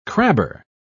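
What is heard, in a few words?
"crabber", British English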